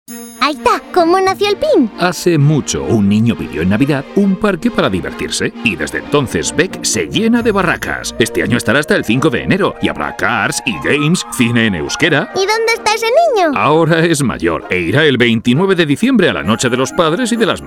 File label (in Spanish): CUÑA DE RADIO; PIN 2018